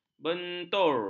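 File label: "bentur", Malay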